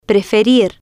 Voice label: "preferir", Spanish

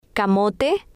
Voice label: "camote", Spanish

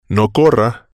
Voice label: "no corra", spanish

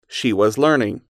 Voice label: "she was learning", English

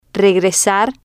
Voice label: "regresar", spanish

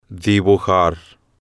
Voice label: "dibujar", Spanish

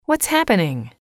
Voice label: what's happening, English

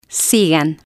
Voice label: "sigan", spanish

